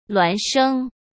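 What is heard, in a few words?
"孪生", Mandarin Chinese; hsk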